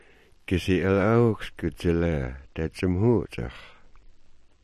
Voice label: "dza_lee", smalgyax